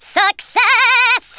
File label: "victory", Stiff Upper Lip